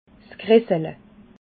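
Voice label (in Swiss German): "gressele", Alsatian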